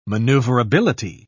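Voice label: "manoeuvrability", British English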